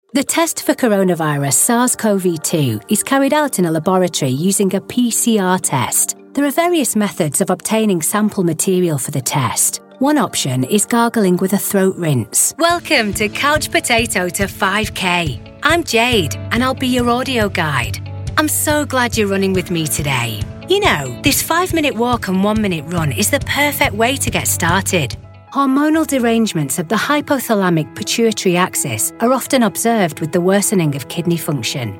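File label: explainer elearning medical, female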